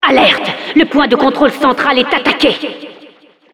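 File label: Announcer alert center control being contested, french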